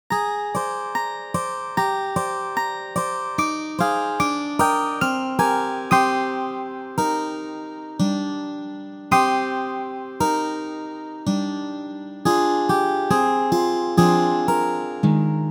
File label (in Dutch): gedicht en muziek; Naar Binnen + Zeenacht